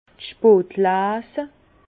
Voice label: "spotleese", Bas Rhin; vin; vendanges tardives

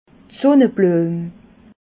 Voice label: "sunnebluem", alsace

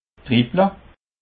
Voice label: "dribble", Alsace

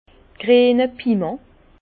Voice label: "griene piment", Bas Rhin; vin; poivron vert

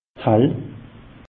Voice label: "hall", alsace; meteo

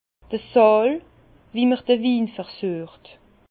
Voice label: "saal", Bas Rhin; vin; salle de dégustation

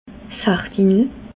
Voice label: "sardinel", alsace

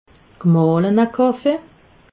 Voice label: "gemalener kaffee", alsace

